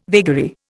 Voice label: "vagary", Indian